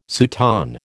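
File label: "soutane", American English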